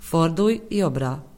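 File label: "jobbra", Hungarian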